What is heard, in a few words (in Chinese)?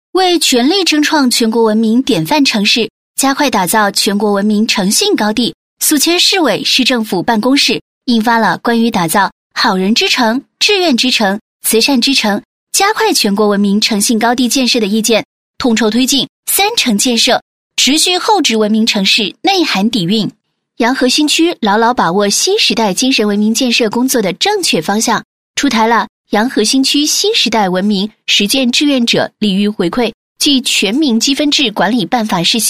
女 专题配音 青春科技感; 年轻稳重; 全力争创全国文明典范城市